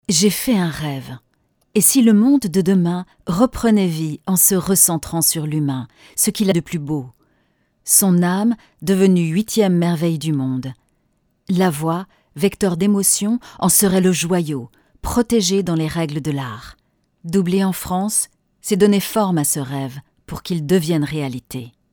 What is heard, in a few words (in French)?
La voix ce joyau Voix Doublage